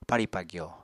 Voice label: "parì-pagyò", Apatani